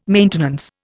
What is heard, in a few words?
"maintenance", Indian